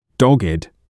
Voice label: "dogged", English